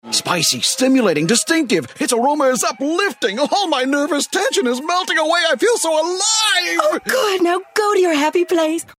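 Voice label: us com energy, American English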